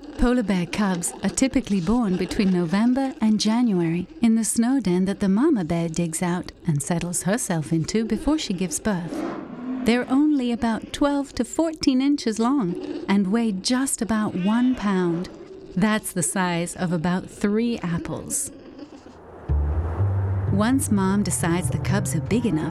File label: narration; polar bear